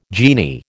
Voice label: "genie", American